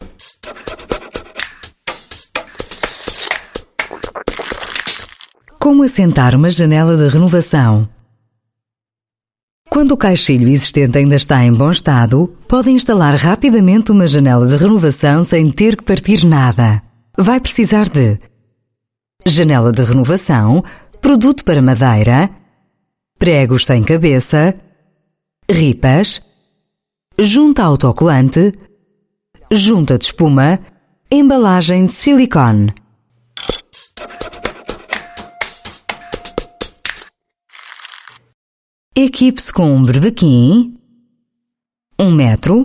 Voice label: European Portuguese F; Leroy Merlin

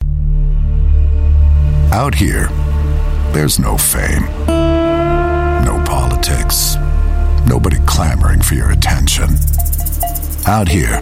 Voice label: US English Commercial

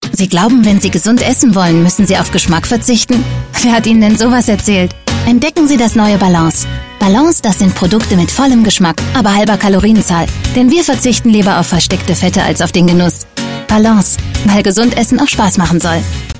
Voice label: German Female; Balance

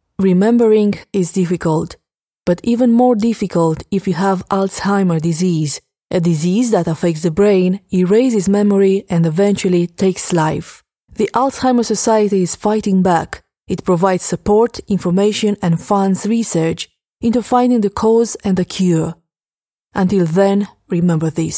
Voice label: Romanian English Medical, Female; Alzheimer

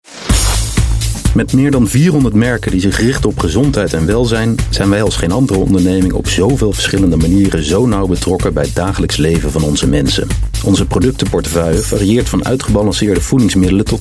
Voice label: Dutch Narration; Male

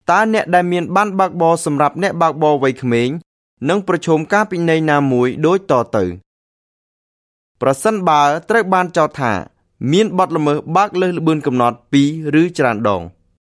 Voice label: Khmer Male E-Book

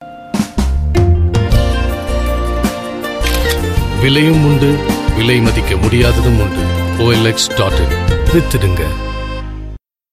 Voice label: Tamil Male Voice; India

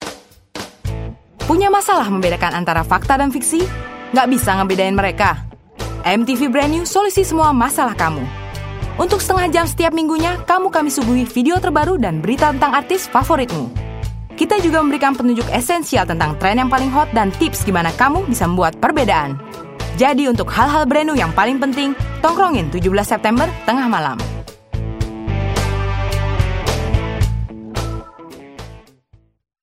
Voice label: Bahasa Indonesia Music Promo, Female

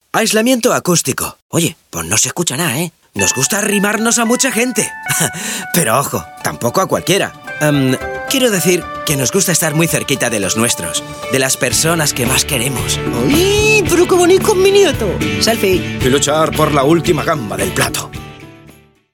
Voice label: European Spanish

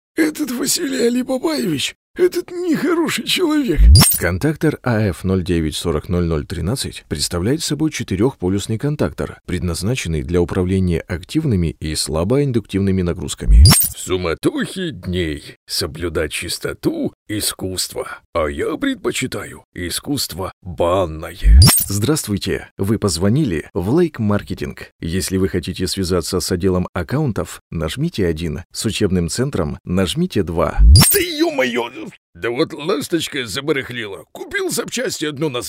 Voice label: Russian, Male

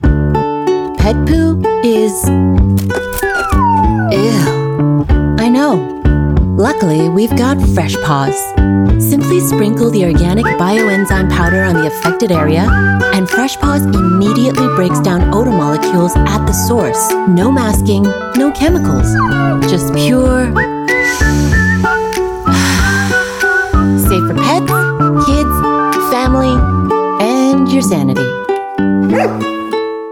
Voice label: US English; Fresh Paws

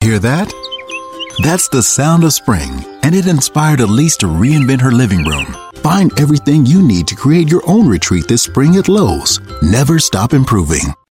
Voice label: US English; Lowes

